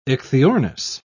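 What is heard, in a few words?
"ichthyornis", British English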